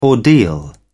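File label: "ordeal", English, British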